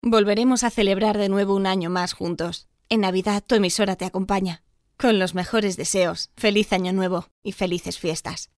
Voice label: jingle navidad deseos spanish christmas voiceover locutora